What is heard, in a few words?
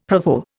"Provo", Indian; Dictionary